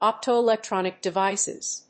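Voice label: "optoelectronic devices", English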